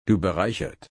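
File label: "bereichern", German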